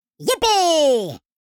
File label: Minion excitement voice; fantasy friends